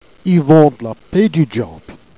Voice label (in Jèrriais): "la pé du dgiabl'ye", Jèrriais